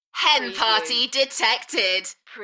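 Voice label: UK female, Enthusiastic, DJ vocals; Hen party detected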